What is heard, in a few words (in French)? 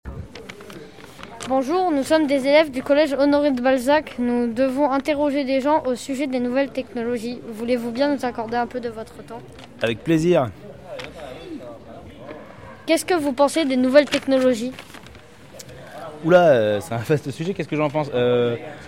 MICROTROTTOIR JEUNE TRAVAILLEUR; collège H. de Balzac